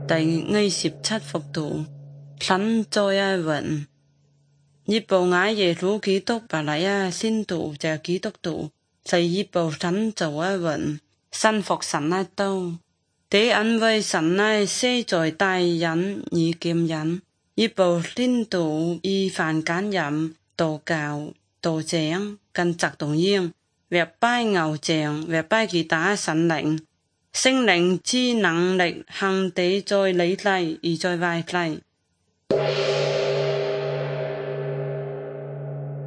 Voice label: good news zhuang guigang guiping